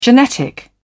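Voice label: "genetic", British English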